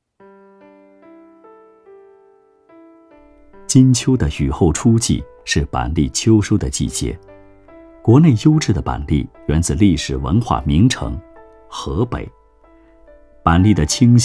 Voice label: 纪录(娓娓道来); 美食板栗

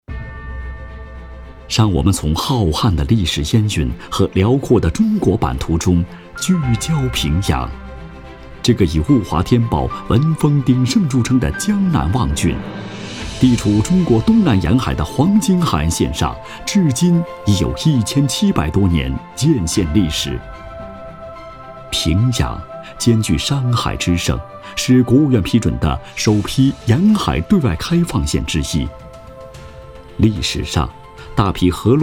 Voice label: 企业宣传(大气激情); 平阳

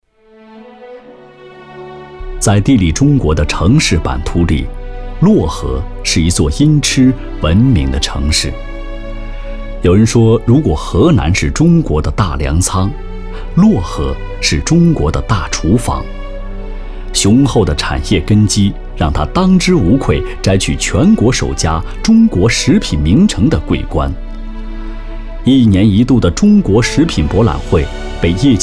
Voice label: 专题片 城市 漯河 大气恢宏

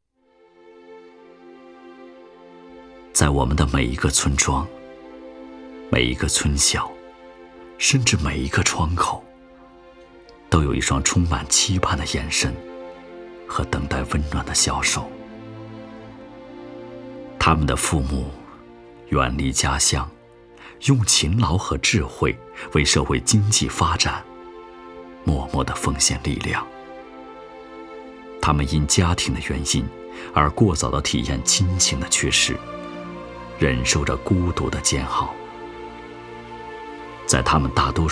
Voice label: 广告(舒缓感人); 留守儿童

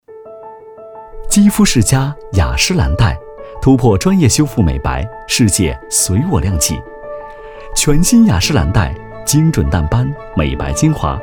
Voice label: 广告(温暖舒适）; 雅诗兰黛

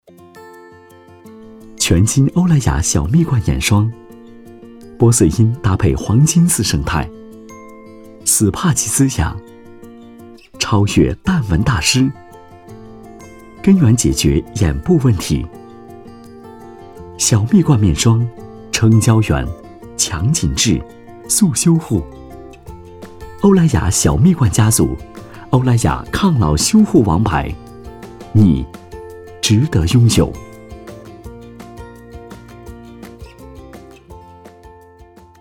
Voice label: 广告(清新温暖); 欧莱雅广告

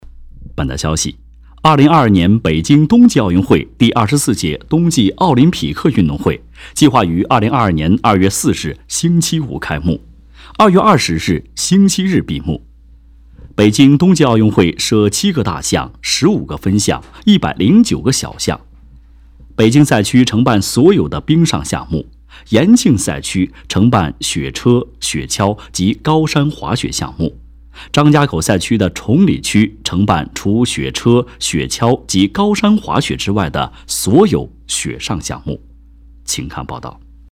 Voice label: 新闻 铿锵有力

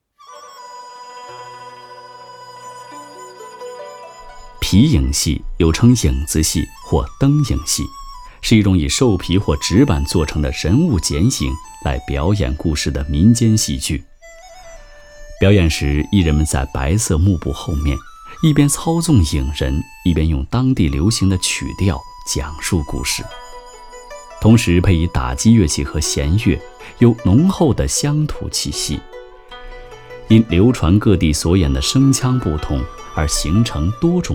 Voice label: 纪录 人文 皮影戏

